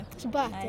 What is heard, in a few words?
woman in cafe